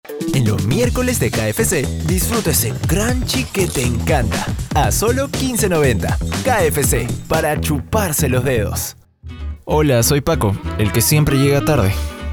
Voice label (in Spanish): demo comercial